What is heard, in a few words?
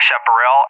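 "Chaparral", English, USA; AirDefence; DCS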